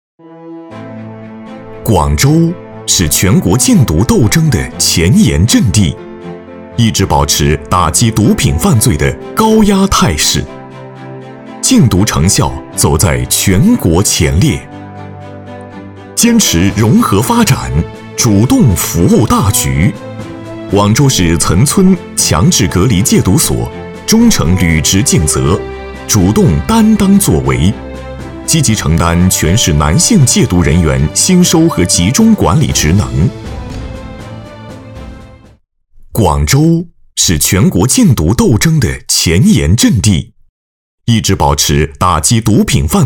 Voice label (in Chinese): 男 专题 禁毒; 广州禁毒